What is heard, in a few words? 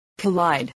"collide", voice